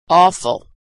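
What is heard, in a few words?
"awful", American English; vowel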